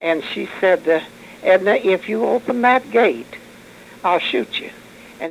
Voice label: Oral history with 77 year old female Grand Junction Colorado, american english dialect